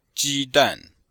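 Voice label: "ji1 dan4", Mandarin Chinese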